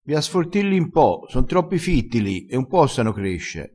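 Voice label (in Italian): "sforti", dialettale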